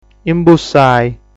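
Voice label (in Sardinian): "Imbussai", Sardinian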